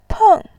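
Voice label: "peng", Mandarin, tone 4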